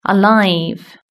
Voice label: "alive", British English